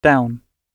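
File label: "down", British English; Oxford Audio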